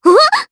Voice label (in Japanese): Damage, jp; kingsraid